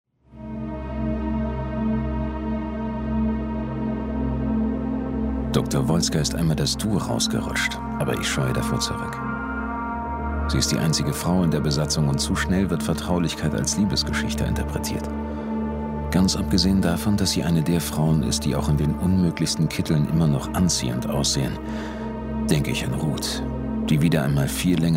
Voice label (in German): Monolog